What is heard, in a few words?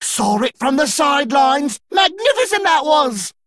Male Dredger Combat Win